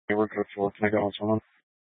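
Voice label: Police 1 Dispatch, Scanner audio recording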